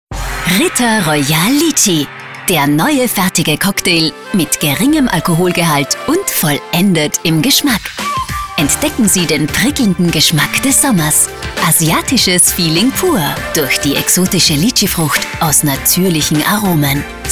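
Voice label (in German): Sprecherdemo, TV-Werbung; RitterRoyal; Hörbeispiele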